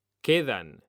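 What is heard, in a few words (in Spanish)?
"QUEDAN", Spanish; mekanta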